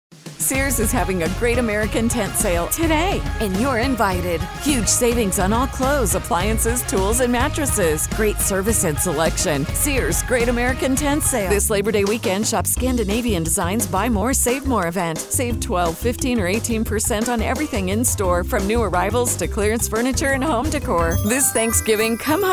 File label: Commercial VO Retail